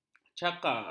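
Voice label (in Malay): "cakar", Malay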